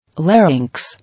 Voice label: "Larynx", English; pl. larynges or larynxes